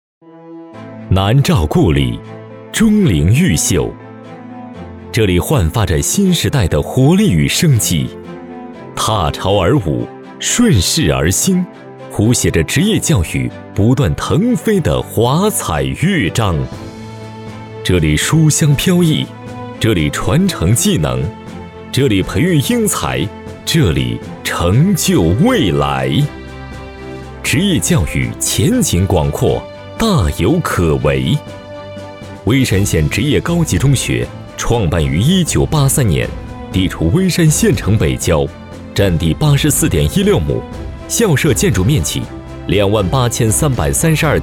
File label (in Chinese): 男 宣传片; 巍山职中